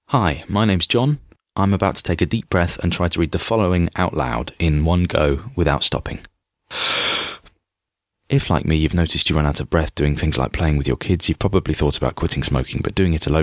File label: natural